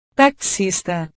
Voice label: "taxista", portugues

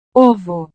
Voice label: "ovo", portugues

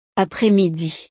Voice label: "après-midi", francés

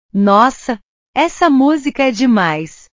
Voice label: "nossa", portugues

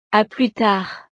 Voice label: "à plus tard", francés

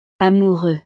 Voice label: "amoureux", francés; estados animo

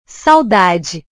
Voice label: "saudade", portugues

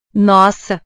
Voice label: "nossa", portugues

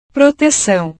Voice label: "protecao", portugues

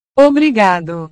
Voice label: "obrigado", portugues

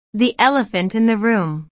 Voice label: "elephant room", inglés

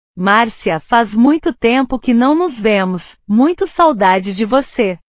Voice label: "saudade", portugues

